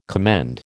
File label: "commend", American